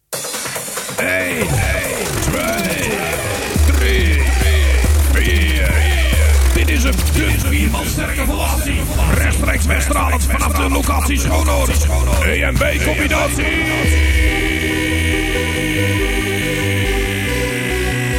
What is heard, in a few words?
Jingle